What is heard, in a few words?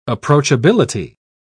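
"approachability", British English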